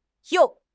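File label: "you4", Mandarin Chinese; HSK3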